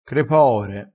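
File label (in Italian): "crepaore", vocabolario dialettale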